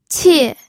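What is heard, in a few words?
"qie", Mandarin Chinese, fourth tone; pinyin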